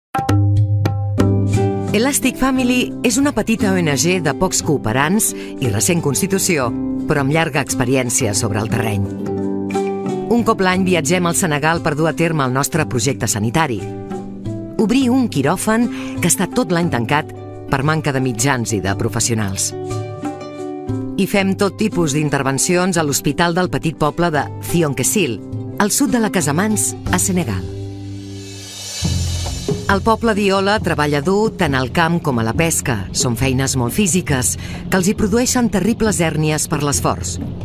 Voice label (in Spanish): Femenino